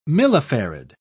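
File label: "millifarad", British English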